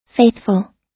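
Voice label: "faithful", American English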